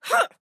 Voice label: Grunt Physical; Mage; Radon Flux; Realm Royale